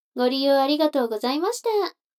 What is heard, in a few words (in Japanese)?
女の子台詞